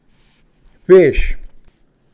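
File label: "fish", English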